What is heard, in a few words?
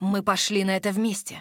ru-ru; quinn